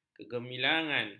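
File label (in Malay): "kegemilangan", Malay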